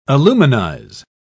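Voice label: "aluminize", British English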